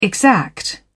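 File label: "exact", British English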